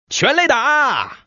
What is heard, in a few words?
common man